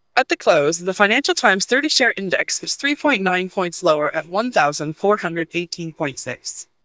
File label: CHIME real VoiceFixer; single-channel Mel-spectrogram denoising and dereverb; CleanMel